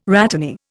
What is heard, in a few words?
"rhatany", Indian